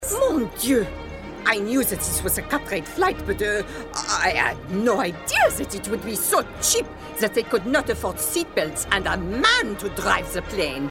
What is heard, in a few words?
French fashionista - haughty impatient